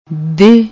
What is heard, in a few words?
"deh", Punjabi; ਦਿਹ